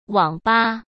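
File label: "网吧", Mandarin Chinese; chinese-flashcards; hsk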